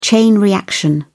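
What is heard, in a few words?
"chain reaction", British English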